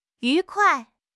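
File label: "yu2 kuai4", Mandarin Chinese; HSK; HSK4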